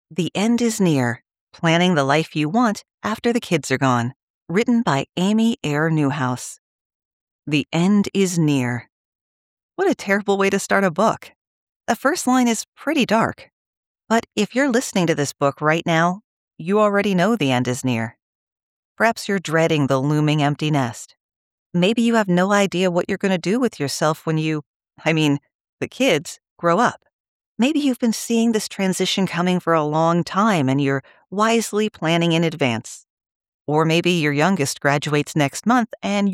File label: Audiobook Non-fiction; Real human voice - no AI!